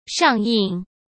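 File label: "上映", Mandarin Chinese; chinese flashcards; hsk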